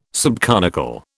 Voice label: "subconical", British

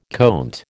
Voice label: "conte", American

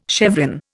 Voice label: "chevron", Indian

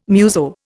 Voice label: "muso", Indian

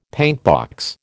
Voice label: "paintbox", American

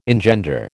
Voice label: "engender", American

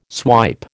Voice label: "swipe", American

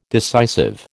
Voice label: "decisive", American

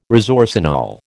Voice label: "resorcinol", American

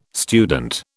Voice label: "student", British

